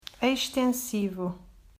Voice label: "Extensivo", Portuguese

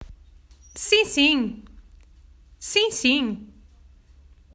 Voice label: Sim sim, Portuguese

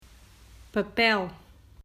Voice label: "Papel", Portuguese

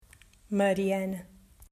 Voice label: A Reduced Pronunciation

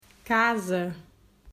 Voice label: "Casa", Portuguese

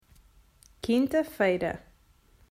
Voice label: "Quinta", Portuguese

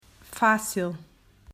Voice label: "Fácil", Portuguese

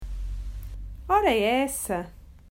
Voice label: "Ora Essa", Portuguese

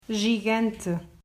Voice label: "Gigante", Portuguese

